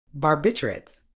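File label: "barbiturates", British English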